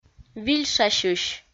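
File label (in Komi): "вильшасьысь", Komi, female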